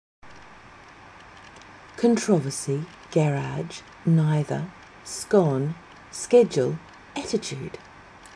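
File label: wellington new zealand female, accent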